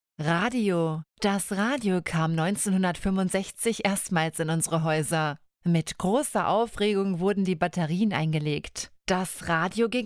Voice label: deutsch